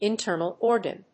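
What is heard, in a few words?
"internal organ", English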